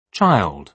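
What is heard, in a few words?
"child", British English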